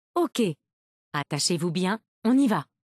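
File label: loading zone, voiceovers, Canadian French; retaildemo; Windows; Mixed Reality Learning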